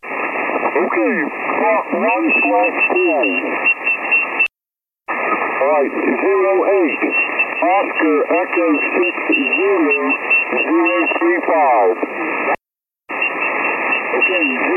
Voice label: ssb, contest